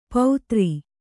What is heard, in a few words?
"ಪೌತ್ರಿ", Kannada